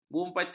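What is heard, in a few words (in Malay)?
"bupati", Malay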